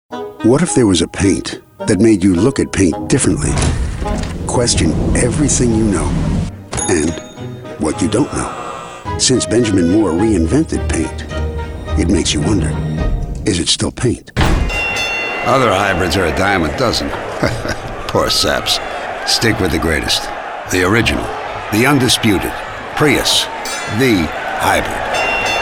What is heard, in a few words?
Commercial